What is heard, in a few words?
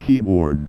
"keyboard", Resident Vocabulary